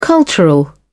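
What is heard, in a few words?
"cultural", British English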